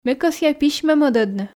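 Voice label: Komi, female; ме кӧсъя письмӧ мӧдӧдны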